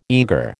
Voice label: "eager", American

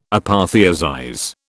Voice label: "apotheosize", British

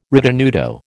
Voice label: "ritenuto", American

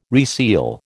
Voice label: "reseal", American

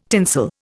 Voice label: "tinsel", Indian

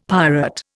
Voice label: "pirate", Indian; Dictionary

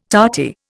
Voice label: "tarty", Indian

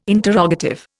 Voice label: "interrogative", Indian

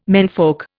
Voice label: "menfolk", Indian

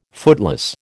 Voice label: "footloose", American

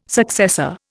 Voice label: "successor", Indian

